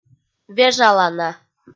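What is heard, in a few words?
"вежалана", Komi, female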